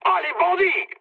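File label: French enemy detected mood med; War Thunder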